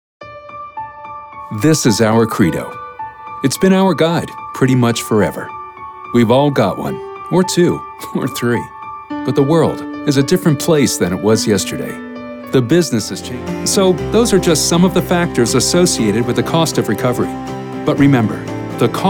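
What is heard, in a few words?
Narration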